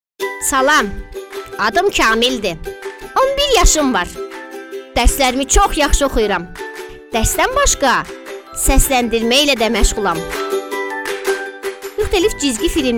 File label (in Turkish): Azerice Cocuk Erkek; seslendirme